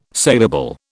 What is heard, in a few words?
"salable", British